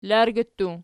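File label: "largi", plural, Archi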